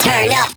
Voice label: Vocal Pitched Phrase "Pump Up Turn" Dry